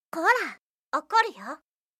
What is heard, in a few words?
anime, Irritated & Angry Phrases from Anime Girls